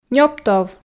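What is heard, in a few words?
"нёптов", Komi, female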